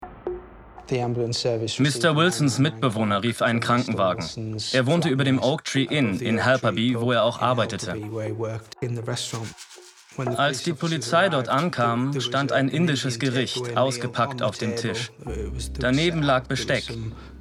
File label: voiceover allergie; rotten netflix